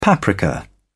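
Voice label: "paprika", British English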